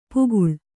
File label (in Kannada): "ಪಾಕಳ", Kannada